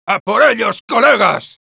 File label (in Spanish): Sniper battle cry, es; ¡A por ellos, colegas!; Respuestas del Sniper